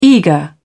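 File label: "eager", British English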